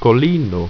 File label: "kolino", Ido